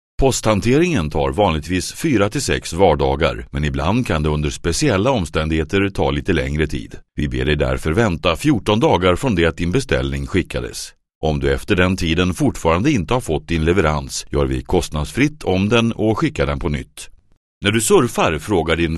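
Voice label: Swedish male Corporate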